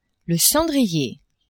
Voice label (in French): "cendrier", French; maison